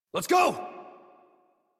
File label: Horse Sprint Adult; Warframe; Duviri